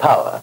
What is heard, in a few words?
LoFi Words, LoFi Vocals